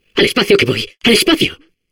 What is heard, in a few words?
Space core babble, es